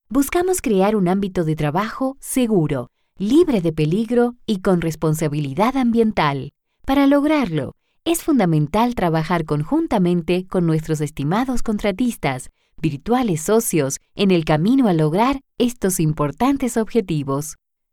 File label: Corporate internal video